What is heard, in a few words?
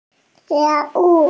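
"jue2 wu4", Mandarin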